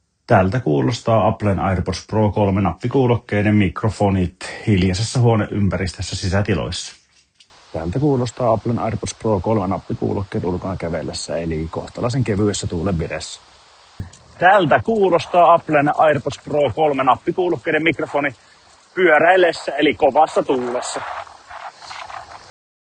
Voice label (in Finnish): mikrofonit, nappikuulokkeet; Apple AirPods Pro 3